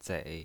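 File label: "zei" Mandarin Chinese pinyin voice, third tone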